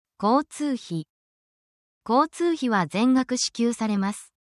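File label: Japanese word, essential Japanese phrases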